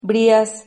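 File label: "brias", Spanish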